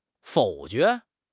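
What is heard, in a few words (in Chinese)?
"否决", Mandarin Chinese; HSK6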